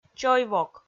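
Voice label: "чой-вок", Komi, female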